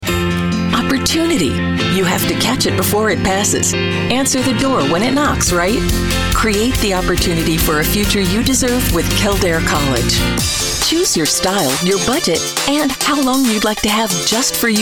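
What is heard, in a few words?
American English explainer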